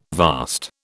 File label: "vast", British